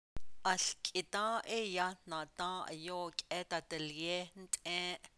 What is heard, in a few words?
Long ago we planted corn, Navajo